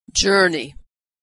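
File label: "journey", American English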